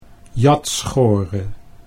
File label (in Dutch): "jatschore", Dutch